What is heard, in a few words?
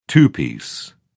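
"two-piece", English, British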